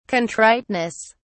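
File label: "contriteness", British English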